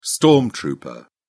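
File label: "storm trooper", English, British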